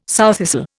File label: "sowthistle", Indian